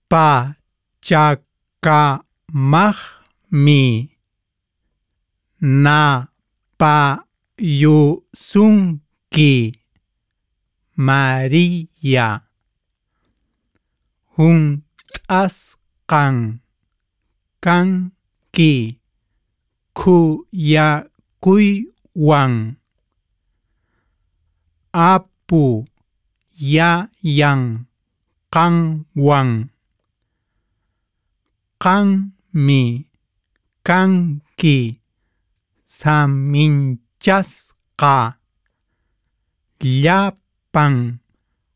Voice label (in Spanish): ave maria quechua lento